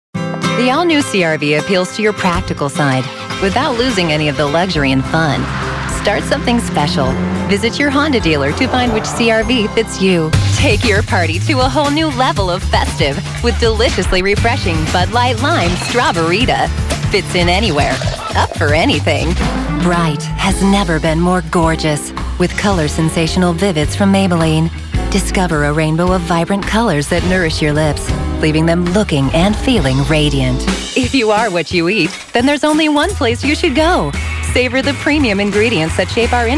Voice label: Commercial

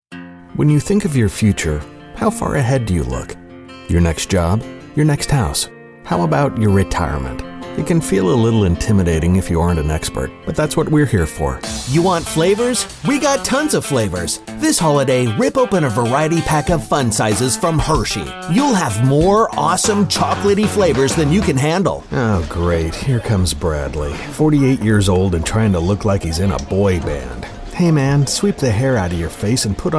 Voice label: Voice Talent Commercial

